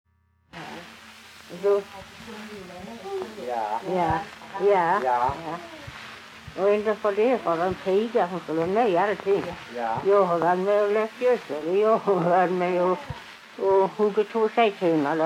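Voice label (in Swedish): Allerum Skåne, Svenska sagor dialekter; Folkminnen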